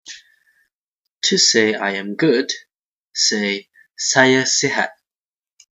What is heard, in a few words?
I'm good Bahasa